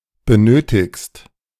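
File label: "benötigst", German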